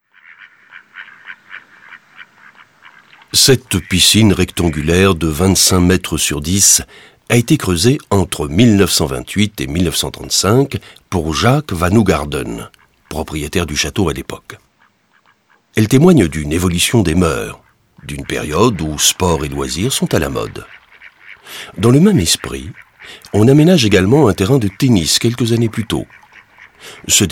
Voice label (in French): audioguide fr adulte; jardin; modave